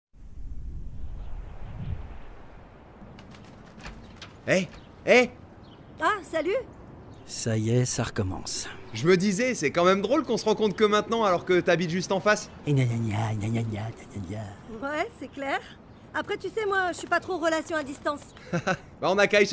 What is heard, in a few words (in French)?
nouvelle pub pleine d'humour sur le confinement